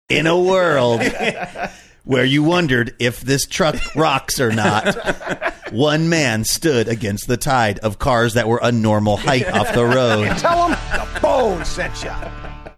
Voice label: saying in a world where you wondered if this truck movie trailer